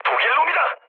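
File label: Korean enemy detected mood med, speech; War Thunder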